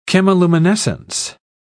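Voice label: "chemiluminescence", British English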